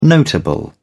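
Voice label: "notable", British English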